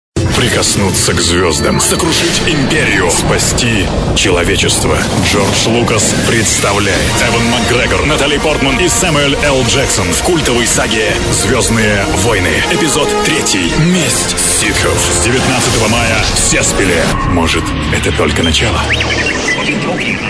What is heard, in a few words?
voice male